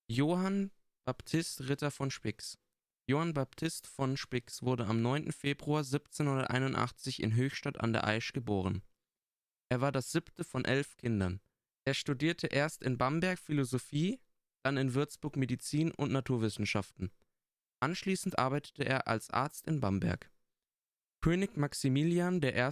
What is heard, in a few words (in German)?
hochdeutsch; Das Leben unseres Namensgeber in verschiedenen Sprachen und Dialekten.